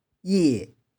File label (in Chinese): "ie2", 玉環